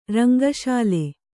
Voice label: "ರಂಗಶಾಲೆ", Kannada

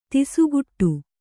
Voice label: "ತಿಸುಗುಟ್ಟು", Kannada

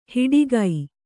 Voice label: "ಹಿಡಿಗೈ", Kannada

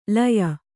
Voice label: "ಲೀಯ", Kannada

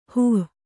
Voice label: "ಹೋ", Kannada

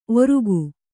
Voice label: "ಓರ್ಗೈ", Kannada

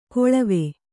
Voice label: "ಕೊಳವೆ", Kannada

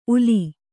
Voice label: "ಊಲೆ", Kannada

